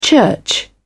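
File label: "church", British English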